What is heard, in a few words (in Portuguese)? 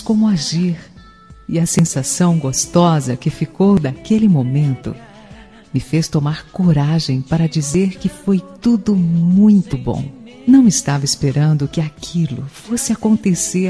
Romântica Adorei Ficar Com Você F